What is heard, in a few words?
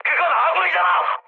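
Korean player friendly fire mood high; speech; War Thunder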